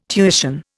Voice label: "tuition", Indian